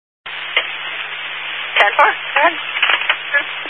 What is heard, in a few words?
Highway Patrol, Phoenix Metro West Area, scanner